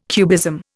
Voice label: "cubism", Indian